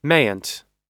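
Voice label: "mayn't", US English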